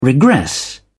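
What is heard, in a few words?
"regress", British English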